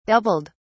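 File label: "doubled", British English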